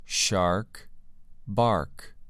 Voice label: "shark" "bark"

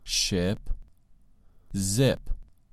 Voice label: "ship" "zip"

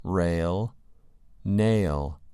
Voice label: "rail" "nail"

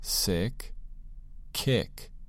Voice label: "sick" "kick"

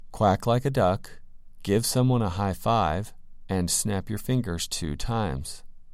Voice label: "quack like a duck" "give"

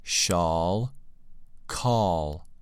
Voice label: "shawl" "call"

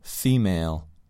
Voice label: female

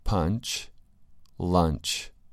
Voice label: "punch" "lunch"

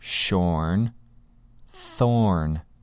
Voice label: "shorn" "thorn"

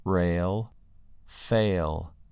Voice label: "rail" "fail"

